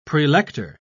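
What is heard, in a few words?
"prelector", English, British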